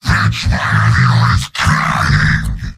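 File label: jeers, robot; heavy; mvm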